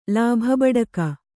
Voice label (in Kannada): "ಲಾಭಬಡುಕ", Kannada